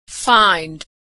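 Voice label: "find", American English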